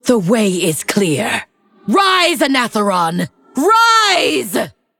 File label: Female Undead Victory